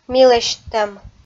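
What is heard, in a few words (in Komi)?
"милӧсьттӧм", Komi, female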